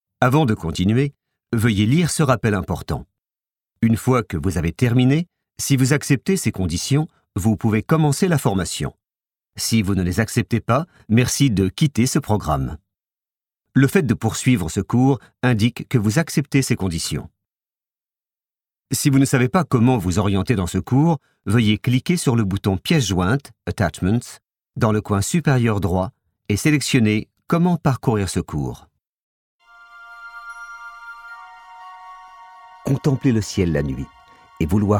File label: French